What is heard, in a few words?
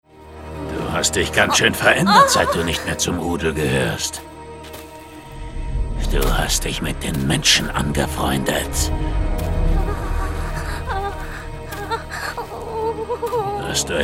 male german acting